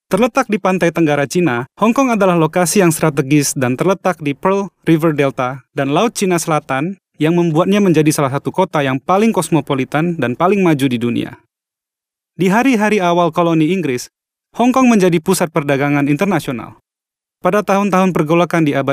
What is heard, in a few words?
INDO male corporate